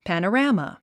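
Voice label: "panorama", English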